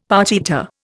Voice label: "partita", Indian; Dictionary